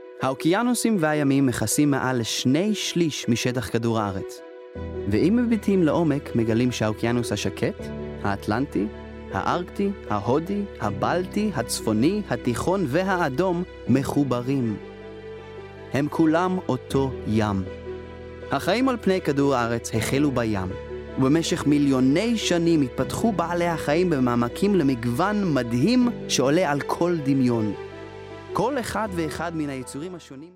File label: Hebrew, Male, 20s-30s; Dutch, Eastern European, European, Hebrew, Middle Eastern, Russian